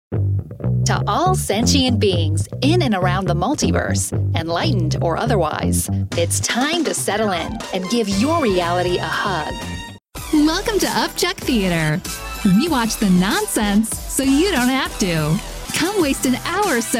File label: Podcast Intros